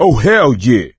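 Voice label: positive noise, voice, male; Left 4 Dead 2; coach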